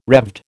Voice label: "Revd", American

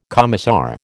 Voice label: "commissar", American

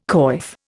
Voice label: "coif", Indian

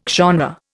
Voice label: "genre", Indian

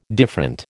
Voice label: "different", American

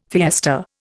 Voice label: "fiesta", Indian

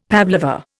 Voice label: "pavlova", Indian; Dictionary

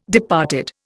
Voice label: "departed", Indian

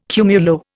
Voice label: "cumulo", Indian

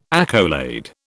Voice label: "accolade", British

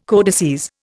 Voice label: "codices", Indian

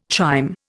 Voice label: "chyme", Indian

